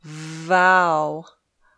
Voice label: "vow", English

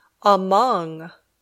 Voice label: "among", English

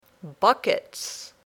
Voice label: "buckets", English

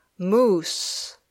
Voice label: "moose", English

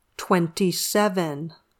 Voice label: "twenty-seven", English

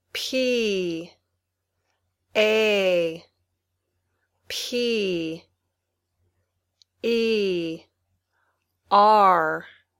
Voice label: Spelling, Basic English

